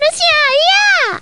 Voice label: cheer